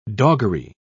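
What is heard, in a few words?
"doggery", British English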